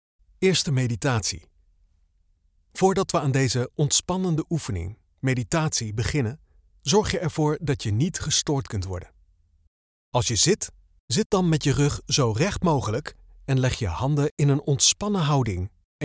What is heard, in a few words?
Meditation dutch sample